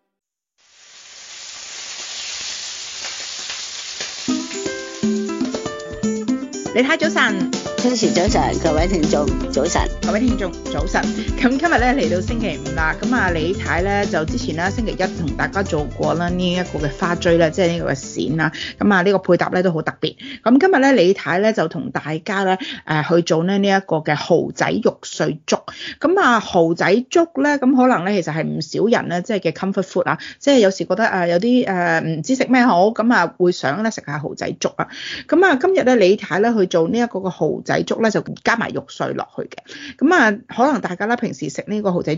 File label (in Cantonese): cantonese; 【療癒美食】蠔仔肉碎粥; 美食速遞; SBS Chinese